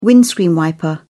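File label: "windscreen wiper", British English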